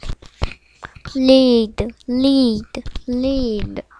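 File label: "lead", spoken word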